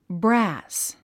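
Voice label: "brass", English; 発音 brǽs ブラス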